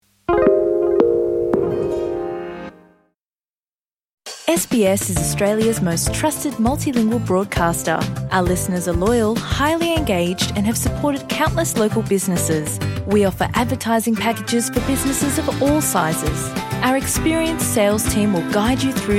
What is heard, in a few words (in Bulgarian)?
bulgarian; sbs